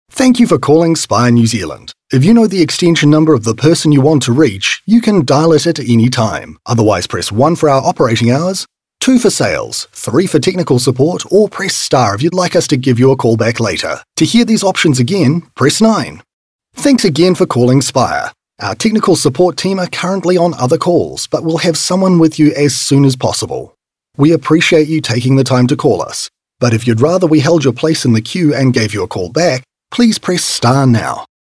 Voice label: NZ PHONE

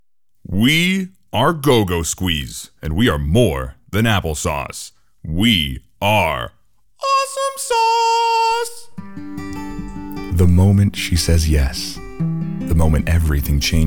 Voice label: US Male Radio

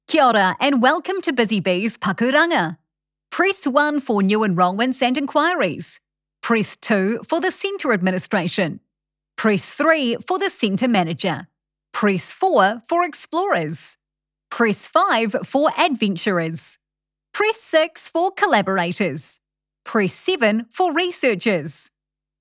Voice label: Phone Welcome; Provincial Education; Pakuranga

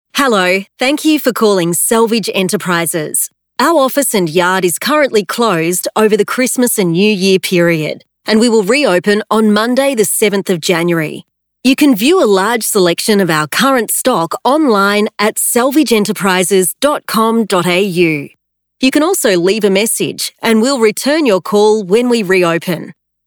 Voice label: AU PHONE

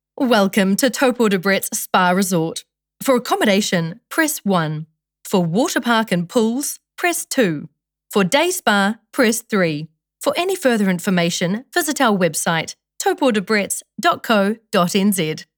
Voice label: Phone Welcome Greeting; Taupo Debretts Spa Resort

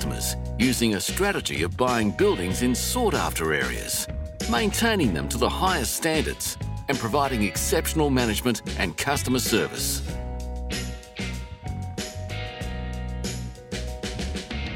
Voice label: On Hold Messaging; Zupp

